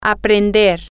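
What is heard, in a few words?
to Learn, Spanish, female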